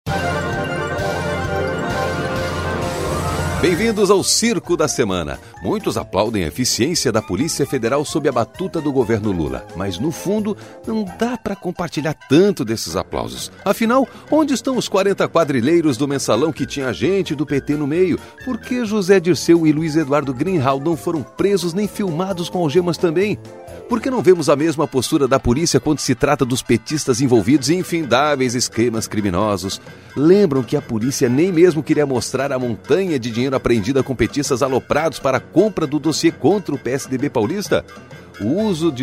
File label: Portuguese Brazilian narration